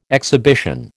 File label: "exhibition", American